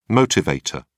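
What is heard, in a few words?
"motivator", British English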